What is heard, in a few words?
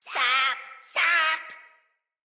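See Player Corrupted Sprouts, en; Dialog; Battle Barks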